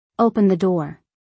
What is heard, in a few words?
Open the door, English; Simple Commands